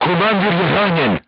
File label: voice message commander wounded, crew tanks serbian